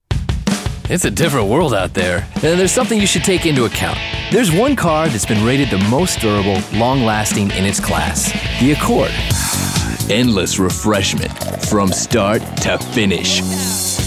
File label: Commercial